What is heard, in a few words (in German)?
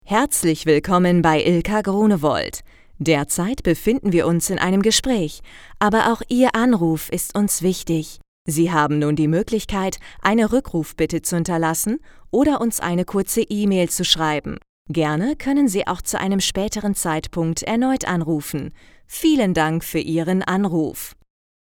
Telefonansage